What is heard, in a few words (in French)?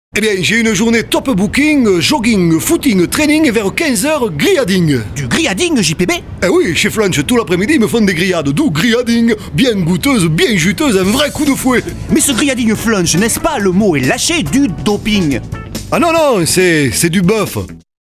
Accent Sud Ouest